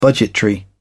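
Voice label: "budgetary", English, British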